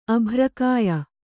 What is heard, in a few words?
"ಅಭ್ರಕಾಯೆ", Kannada